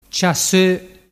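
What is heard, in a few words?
"ciasso", Vernazzese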